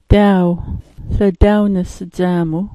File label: "daw", smalgyax